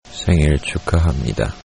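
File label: happy birthday, Korean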